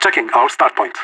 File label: checking t spawn, radio; LEET